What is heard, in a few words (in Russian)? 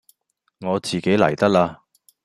Голоса, Гонконгский